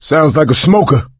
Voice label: heard smoker, coach, survivor voice; source engine; left 4 dead 2